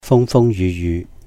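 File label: "Fung Fung Yuh Yuh", Cantonese; frequent hardships; 風風雨雨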